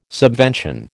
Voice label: "subvention", American

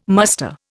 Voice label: "muster", Indian

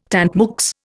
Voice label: "tant mieux", Indian

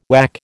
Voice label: "whack", American

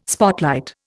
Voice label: "spotlight", Indian

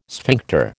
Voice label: "sphincter", American

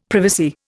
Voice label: "privacy", Indian; Dictionary

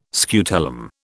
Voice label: "scutellum", British

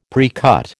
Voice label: "precut", American

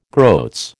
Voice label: "groats", American

